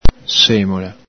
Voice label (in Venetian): "semola", Veneto; N.fem. sing.; bran; semola, crusca; el Galepin